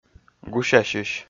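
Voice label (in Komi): "гусясьысь", Komi, male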